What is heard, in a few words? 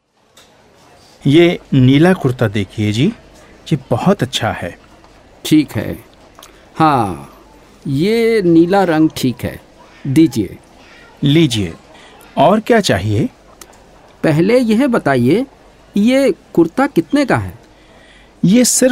Hindi